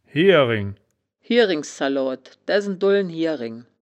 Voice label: "hiering", wittlich

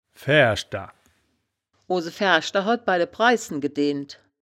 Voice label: "faeaerschder", wittlicher; Fäärschder

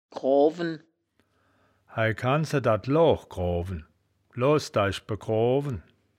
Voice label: "groawen", wittlich

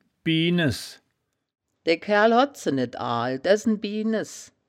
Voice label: "bienes", woerterbuch, Wittlich

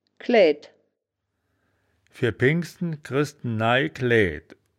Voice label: "klaeaed", wittlich

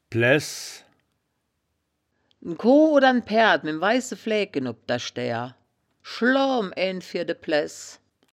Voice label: "blaehs", woerterbuch, Wittlich

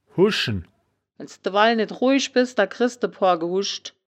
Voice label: "huschen", wittlich

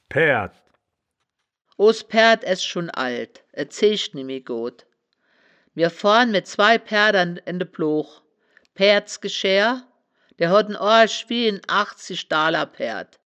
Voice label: "paeaerd", Wittlicher; das wittlicher woerterbuch; woerterbuch vertonung

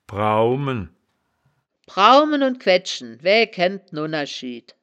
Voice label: "praumen", Wittlicher; das wittlicher woerterbuch; woerterbuch vertonung

